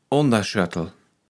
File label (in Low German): "Onderschöddel", Platt